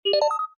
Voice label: announce, ships computer